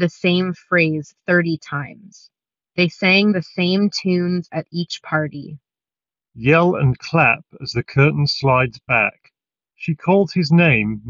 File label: Ideal microphone sample; Earfun Wave Pro